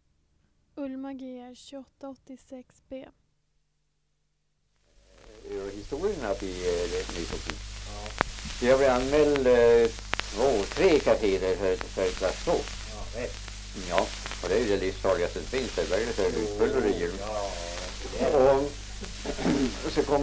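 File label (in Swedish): Ekshärad Värmland, dialekter; Svenska sagor; Folkminnen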